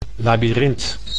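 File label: "labyrint", Dutch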